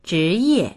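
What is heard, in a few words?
"zhi2ye4", Mandarin Chinese; 职业; 職業; dictionary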